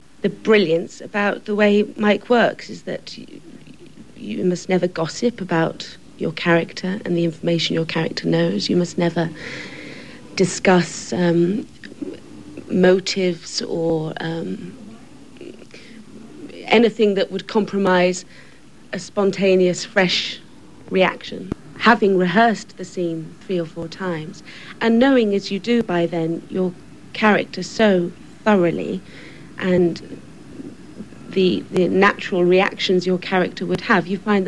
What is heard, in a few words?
British female, dialect